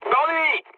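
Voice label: French enemy detected mood low; War Thunder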